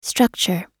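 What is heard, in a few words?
"structure", English; oxford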